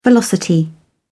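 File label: "velocity", British English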